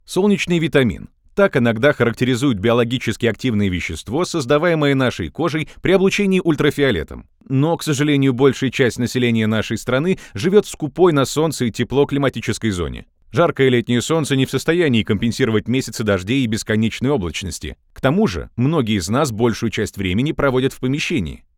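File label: aktiv zg, active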